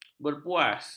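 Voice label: "berpuas", Malay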